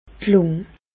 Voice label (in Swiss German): "d' Lùng", Bas Rhin; medecine; poumon